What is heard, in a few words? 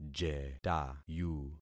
"jetayu", concat syllables; Affixing experiment